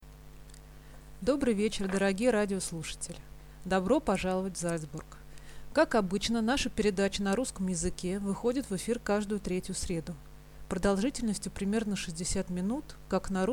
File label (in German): Russland W